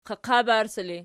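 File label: "qaqabarsi" ergative, Archi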